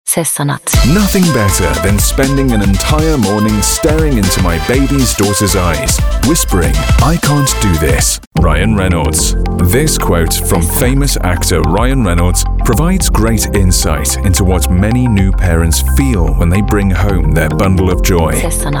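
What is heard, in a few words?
English British, British English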